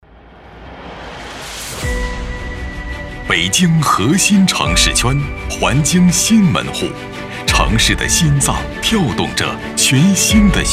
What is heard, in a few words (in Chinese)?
男,城市,宣传片,浑厚,坚定,自信,憧憬,未来; 金燕SOHO